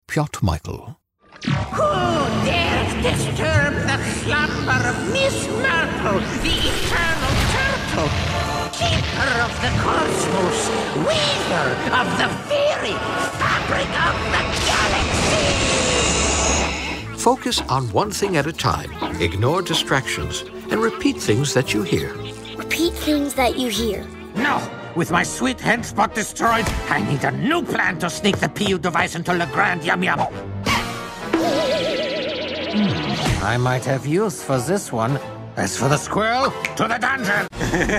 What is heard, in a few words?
Animation